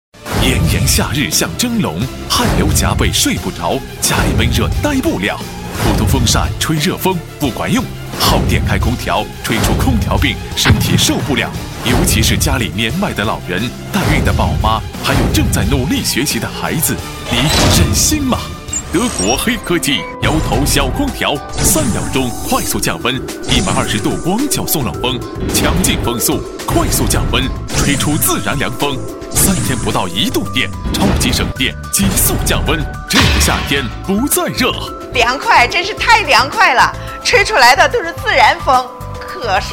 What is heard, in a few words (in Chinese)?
国语男, 电购大力丸 摇头空调